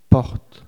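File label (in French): "porte", French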